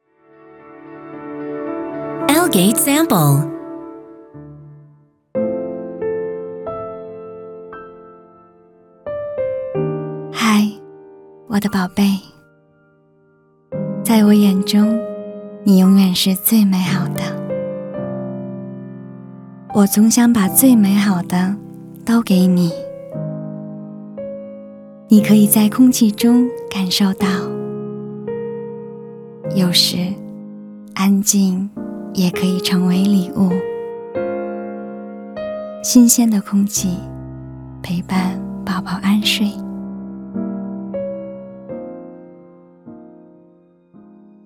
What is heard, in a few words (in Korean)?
중국 여 차분한톤